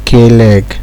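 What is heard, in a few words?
"kleg", Nigerian Pidgin, male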